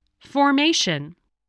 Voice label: "formation", English voice, spelling